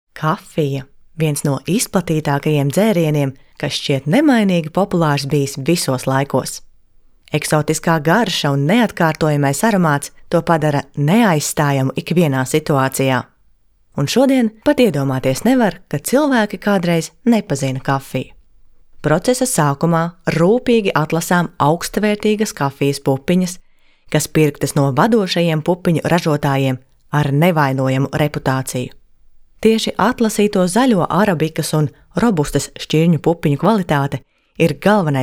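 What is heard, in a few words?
Latvian, female, narrative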